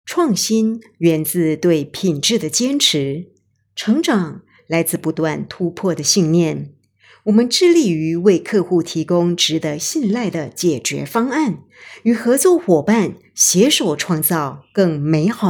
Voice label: Mandarin